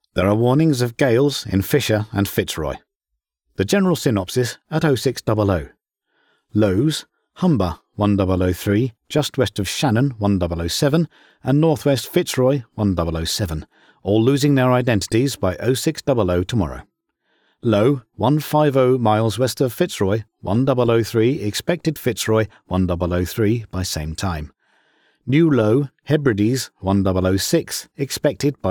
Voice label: Shipping Forecast; British voice actor